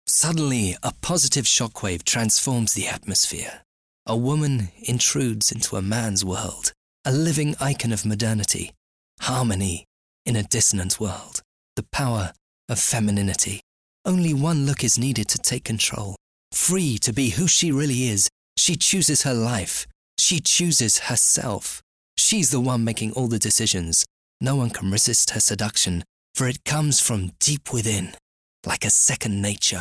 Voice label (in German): uk englisch